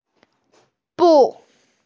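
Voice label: "bu4", Mandarin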